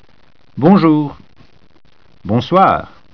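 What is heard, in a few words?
"bonjour", French; Ｂｏｎｊｏｕｒ（ボンジュール） ---こんにちは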